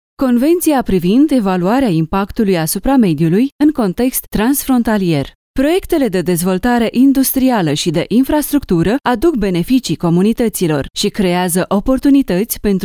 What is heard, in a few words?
romanian commercial